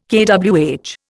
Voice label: "kWh", Indian

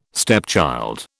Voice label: "stepchild", British

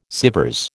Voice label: "Sêvres", American

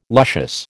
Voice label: "luscious", American

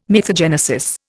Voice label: "mythogenesis", Indian

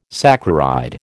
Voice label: "saccharide", American

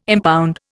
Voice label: "impound", Indian

